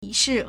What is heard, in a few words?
"yi2 shi4", Chinese